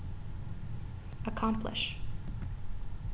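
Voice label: "accomplish", American, female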